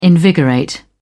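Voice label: "invigorate", British English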